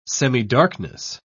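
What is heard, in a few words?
"semidarkness", English, British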